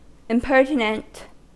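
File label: "impertinent", US English; pronunciations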